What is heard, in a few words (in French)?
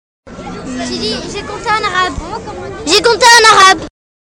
compter arabe